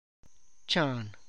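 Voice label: man's name, Tlacochahuaya Zapotec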